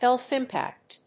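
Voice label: "health impact", English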